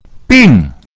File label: "bing4", Mandarin, fourth tone; it means sick